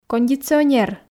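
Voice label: "кондиционер", Komi, female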